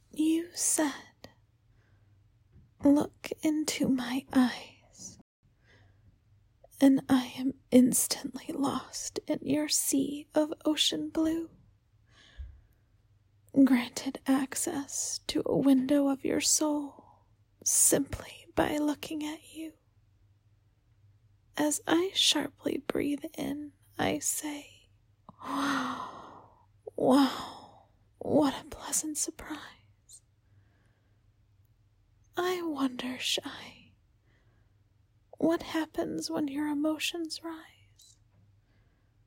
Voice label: Poetry Reading; F4A; You Said